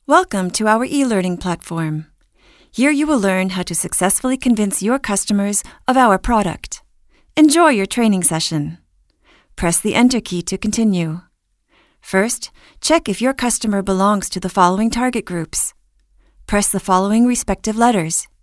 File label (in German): elearning englisch; sprecher